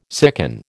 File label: "sicken", American